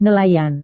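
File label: "nelayan", Indonesian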